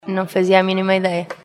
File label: Vox pop